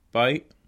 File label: goodbye, scots